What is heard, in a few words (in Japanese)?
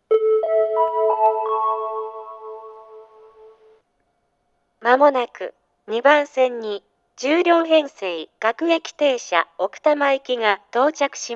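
接近放送, 旭型放送(女声), 青梅線; 10両奥多摩行; 奥多摩行最終電車でも最終付帯はありませんでした。; 牛浜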